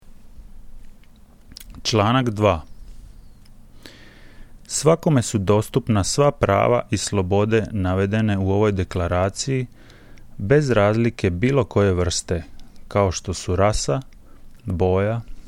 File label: Croatian